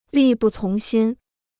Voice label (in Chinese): "力不从心", Chinese